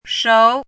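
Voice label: "shou2" Mandarin Chinese, rising tone; chinese-voice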